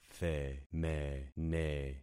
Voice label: "themene", concat syllables; Affixing experiment